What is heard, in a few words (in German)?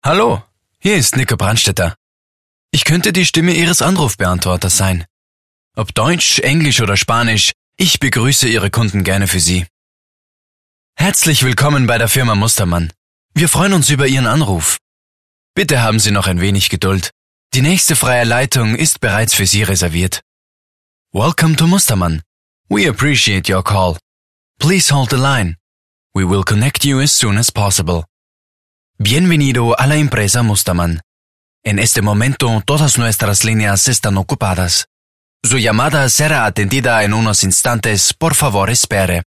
Telefonansagen